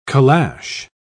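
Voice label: "caleche", English, British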